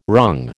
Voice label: "wrung", American